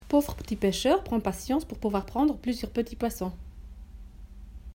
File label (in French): virelangue